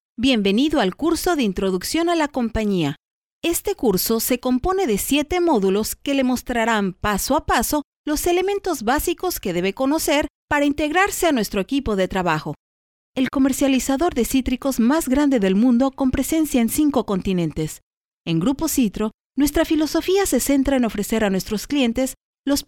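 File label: Spanish Latin America female narrative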